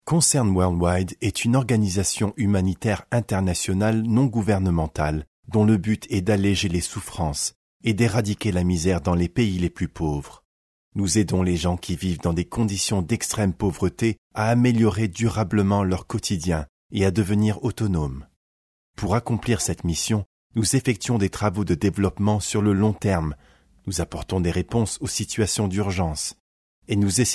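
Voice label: French, male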